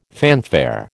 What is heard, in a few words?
"fanfare", American